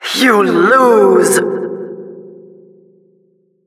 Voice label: Announcer final stage outcome

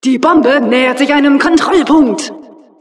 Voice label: announcer cart defender warning, de; Administratorin

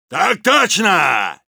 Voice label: Soldier positive vocalization, ru; Так точно!

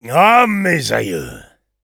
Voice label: jeers; Engineer; fr

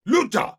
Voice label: heavy melee dare, es

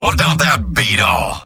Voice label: positive vocalization; Engineer; Robot-filtered lines from MvM.; Engineer Robot audio responses

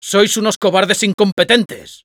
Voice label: Spy taunts, es; ¡Sois unos cobardes incompetentes!

